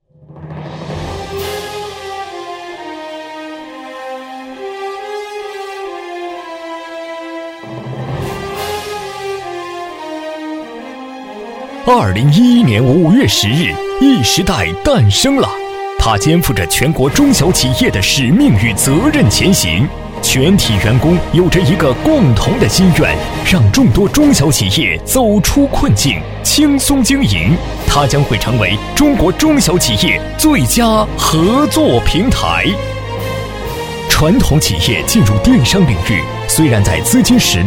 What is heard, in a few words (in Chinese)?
男 浑厚大气, 国语男声配音 磁性; 金融危机爆发